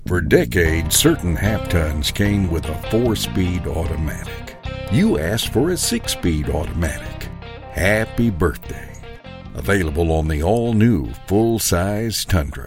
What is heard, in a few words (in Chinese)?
外籍英男 汽车广告 大气浑厚